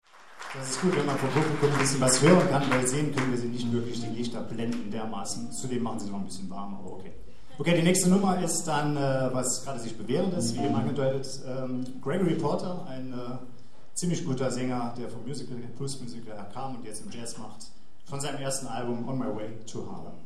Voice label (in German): Ansage; Jazz-Club Trier e.V. (JCT); 15. Jazzgipfel (Tufa, Trier)